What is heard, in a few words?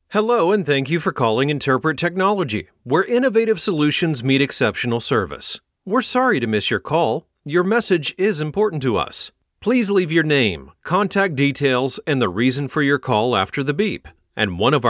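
american telephone, Friendly US Accented Voiceover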